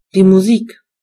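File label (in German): "music", German; gcompris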